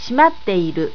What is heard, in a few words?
"simatteiru", japanese